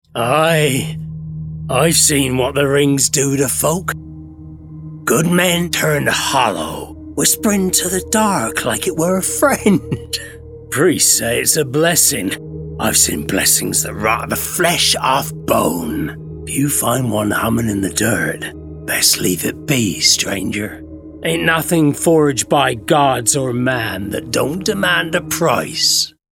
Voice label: Gaming Villager